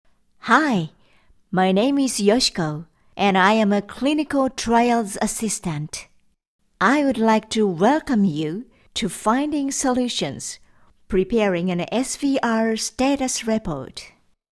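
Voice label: Japanese English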